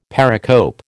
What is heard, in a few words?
"pericope", American